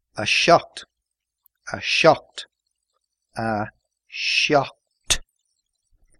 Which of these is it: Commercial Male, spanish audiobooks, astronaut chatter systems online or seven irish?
seven irish